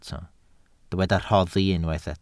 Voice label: "rhoddi", Welsh